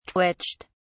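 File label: "twitched", English, British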